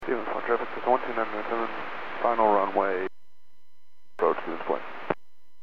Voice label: Aviation 122.7; KSTE

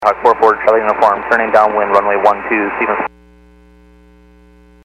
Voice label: Aviation 122.7; KSTE

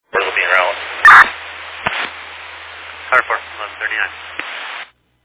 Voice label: Fire Dispatch

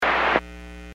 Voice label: Aviation 121.5 Emergency

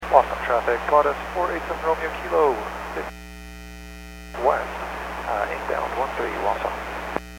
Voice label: Aviation 122.7; KSTE

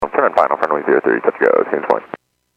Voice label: Airports 122.7, Radio; KSTE

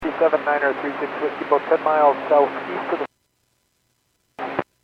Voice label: Airports 122.7, Radio; KSTE

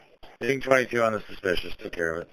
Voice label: Police Sheriff Dispatch

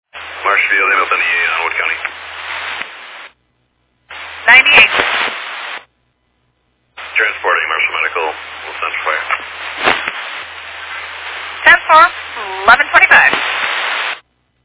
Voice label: Fire Dispatch

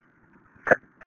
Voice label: Police Sheriff Dispatch

